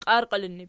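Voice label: "qiarqiilinnu" plural, Archi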